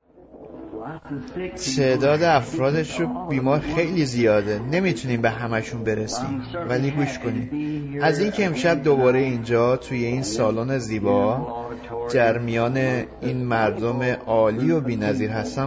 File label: Farsi Voice Over; The Choosing of a Bride